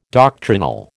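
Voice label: "doctrinal", American English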